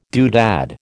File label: "doodad", American